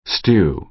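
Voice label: "stew", American English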